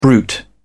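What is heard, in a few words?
"bruit", English, British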